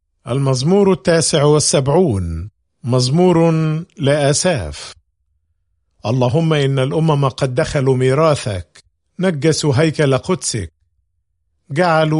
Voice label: Psalm, arabic bible; psalms